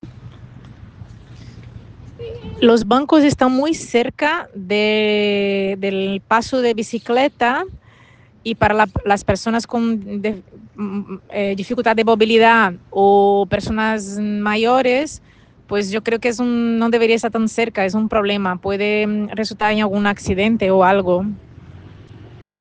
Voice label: Voice message